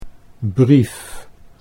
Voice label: "brief", Dutch

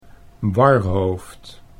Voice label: "warhoofd", Dutch

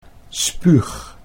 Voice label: "spuug", Dutch

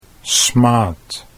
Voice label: "smaad", Dutch

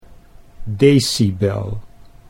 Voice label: "decibel", Dutch

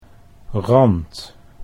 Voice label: "rand", Dutch

